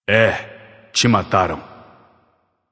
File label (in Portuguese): notify slain you, vox caster portuguese